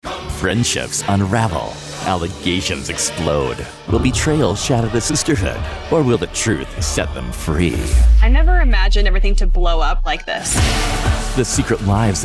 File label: PROMO, Dramatic · Provocative · Edgy; Promo Demo Clips; Secret Lives of Mormon Wives